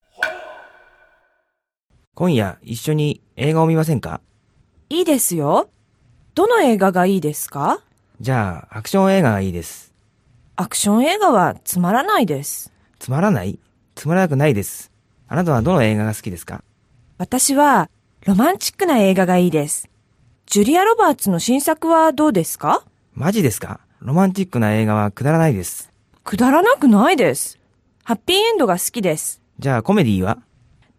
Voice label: dialog; JapanesePod101; Beginner Season 1